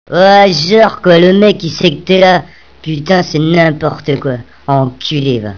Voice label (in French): enerve; ExplosivE RSR